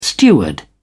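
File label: "steward", British English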